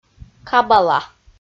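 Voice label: "кабала", Komi, female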